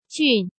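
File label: "Jùn", Chinese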